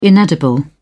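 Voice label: "inedible", British English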